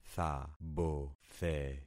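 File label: "thabothe", concatenated syllables; Affixing experiment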